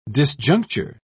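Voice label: "disjuncture", English, British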